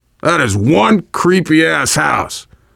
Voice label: world farmhouse, voice, biker